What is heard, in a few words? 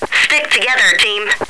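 stick together, woman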